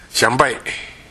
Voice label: "yanbae", minamiyamagata